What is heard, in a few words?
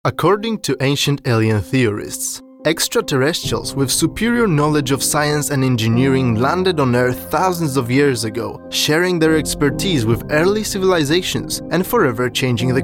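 Polish Accented English; GoLocalise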